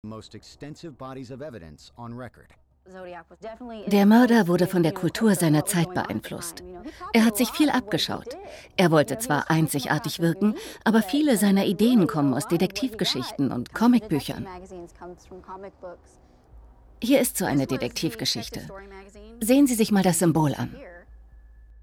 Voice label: Voice Over; Travel Chanel; Geisterjaeger